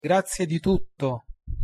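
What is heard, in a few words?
pronunciation "grazie di tutto", Italian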